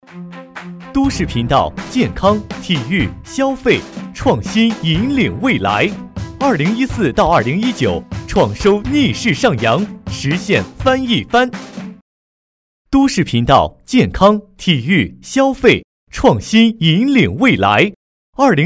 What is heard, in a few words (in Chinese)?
年轻广告